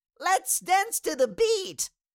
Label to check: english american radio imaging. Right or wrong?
Wrong